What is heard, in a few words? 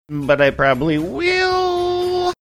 saying but i probably will funny